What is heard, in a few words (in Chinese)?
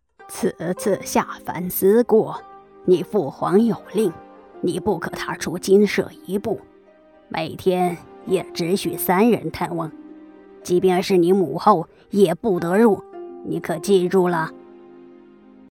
奶奶